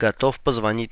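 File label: ready to call, russian; sgh-d720; AnyVoice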